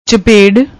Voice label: "chaped", Punjabi